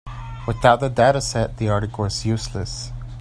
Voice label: english